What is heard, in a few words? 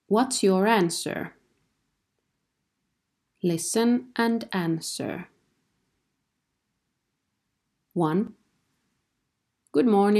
English react